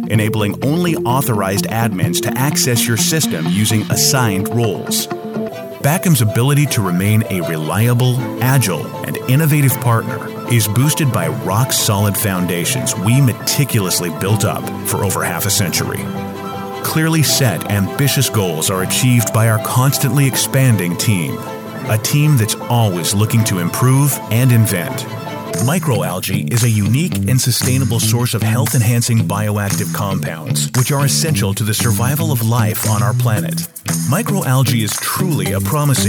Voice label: corporate business